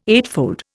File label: "eightfold", Indian